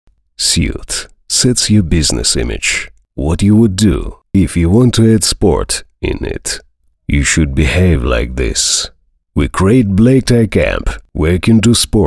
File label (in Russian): anglijskij yazyk